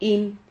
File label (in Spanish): "him", Silabas